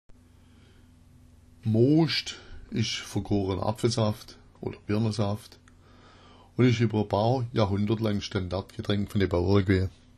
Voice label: mooscht, schwaebisch

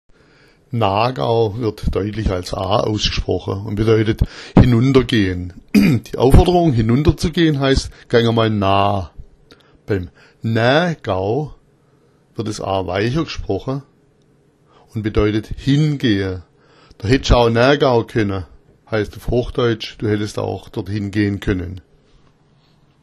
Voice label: na gao, schwaebisch

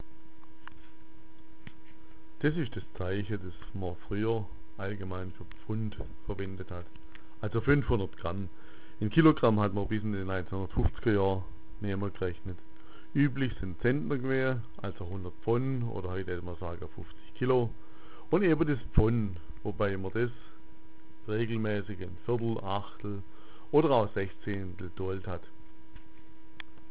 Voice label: "pfund", schwaebisch

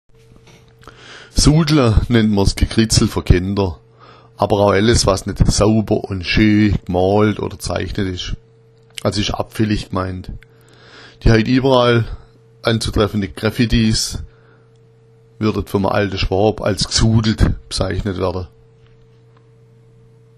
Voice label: sudla, schwaebisch

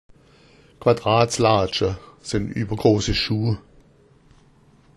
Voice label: "quadratslaatscha", schwaebisch

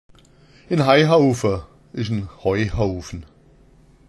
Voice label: "haihaufa", schwaebisch

